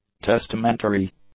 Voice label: "testamentary", English; adjectives